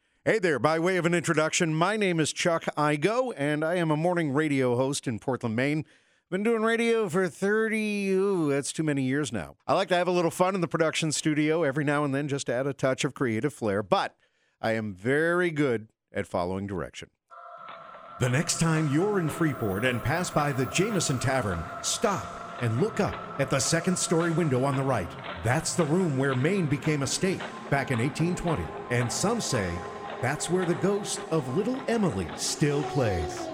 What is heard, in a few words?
Voice Demo